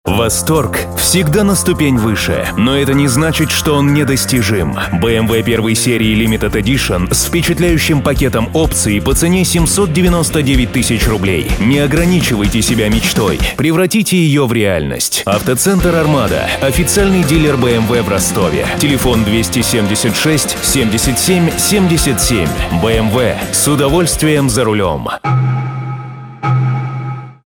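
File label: Russian commercial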